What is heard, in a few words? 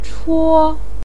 "chuo1", Chinese pinyin voice